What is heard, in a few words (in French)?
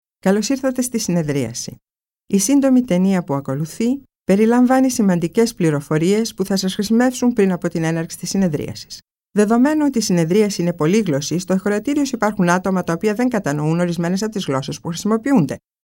grec